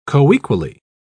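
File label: "coequally", English, British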